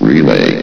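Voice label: "relay", male synthesized voice; vox; source engine; hl1; video games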